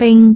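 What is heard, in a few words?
"bing3", Cantonese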